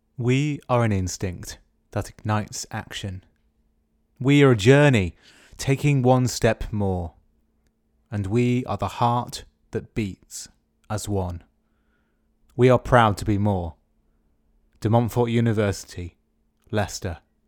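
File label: English, British, male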